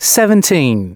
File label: announcements male